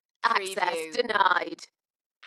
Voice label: UK female Access denied Dalekness; beanstalk audio